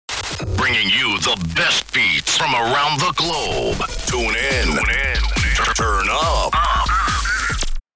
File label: Male radio voice dj sweeper, "tune in turn up"; broadcasting